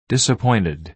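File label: "disappointed" American English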